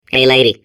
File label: babble; Portal 2